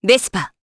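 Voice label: Vox Japanese; Hilda; Vespa; kingsraid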